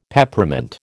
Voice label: "Peppermint", American